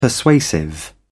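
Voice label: "persuasive", British English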